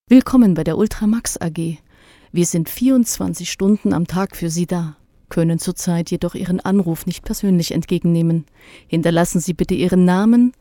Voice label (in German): deutsch, Telefonansagen, weiblich